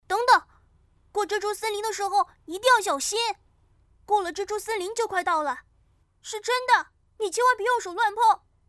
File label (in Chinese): 动画 角色 小正太动画